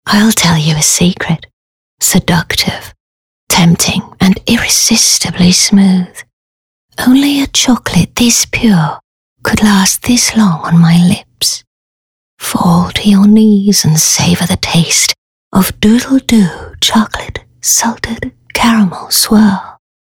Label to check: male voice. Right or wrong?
Wrong